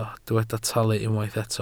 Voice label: "talu", Welsh